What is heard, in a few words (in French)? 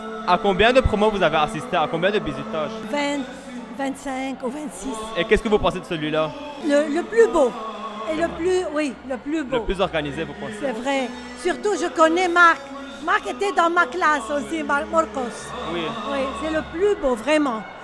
micro trottoir